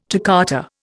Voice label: "toccata", Indian